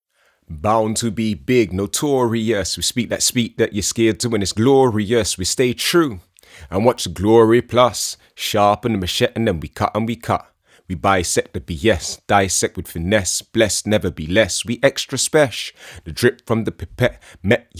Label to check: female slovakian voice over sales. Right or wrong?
Wrong